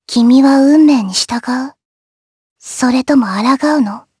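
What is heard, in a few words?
vox dia Japanese; kingsraid; jp; Lewsia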